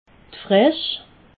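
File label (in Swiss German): "fresch", Alsatian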